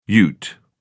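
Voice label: "ute", British English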